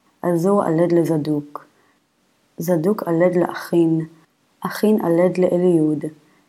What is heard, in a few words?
Matthew Reading Practice, Aramaic